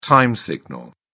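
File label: "time signal", British English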